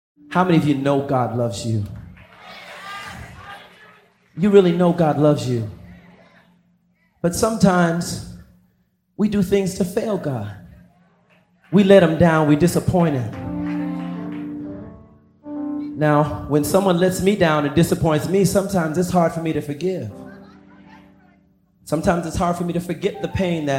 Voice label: Narration